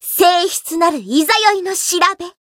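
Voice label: Voice variable chant Japanese; suzette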